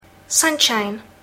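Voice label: "sunshine", English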